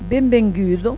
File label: "benvengu", Occitan